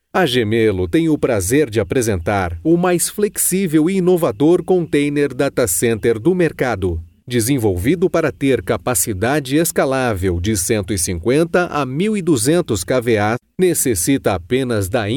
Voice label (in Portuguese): Portuguese Brazil